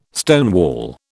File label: "stonewall", British